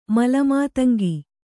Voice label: "ಮಲಮಾತಂಗಿ", Kannada

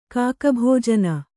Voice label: "ಕಾಕಭಾಜನ", Kannada

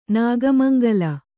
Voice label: "ನಾಗಮಂಗಲ", Kannada; nāga maŋgala; noun

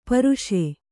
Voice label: "ಪರ್ಷ", kannada

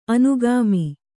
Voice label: "ಅನುಗಾಮಿ", kannada

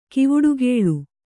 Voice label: "ಕವಡುಗೊಲೆ", Kannada

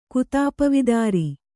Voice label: "ಕುತಾಪವಿದಾರಿ", Kannada

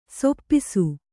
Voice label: "ಸಾಪಿಸು", Kannada

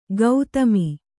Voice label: "ಗೌತಮಿ", Kannada

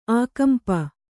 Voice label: "ಆಕಂಪ", Kannada

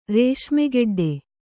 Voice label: "ರೇಷ್ಮೆಗೆಡ್ಡೆ", Kannada

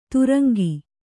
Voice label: "ದ್ರಂಗ", kannada

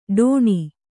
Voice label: "ಢಾಣ", Kannada

